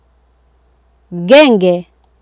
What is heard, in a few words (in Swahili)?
"genge" Swahili